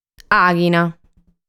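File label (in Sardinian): "Àghina", Sardinian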